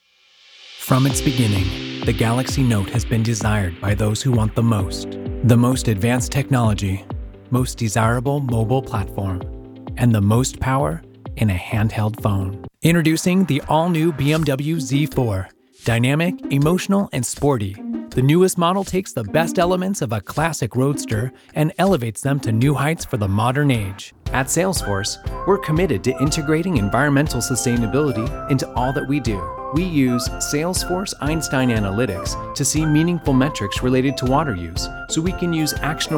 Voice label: Corporate